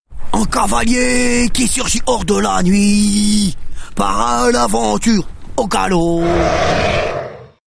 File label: Gryphon Rider Pissed; Warcraft 3